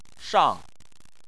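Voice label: "shang" tone 4, Chinese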